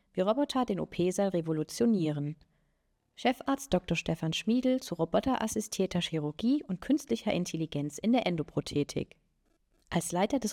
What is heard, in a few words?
Voice Over Interview; Zukunftsmedizin; zentrum für künstlichen gelenkersatz